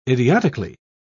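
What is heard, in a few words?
"idiotically", English, British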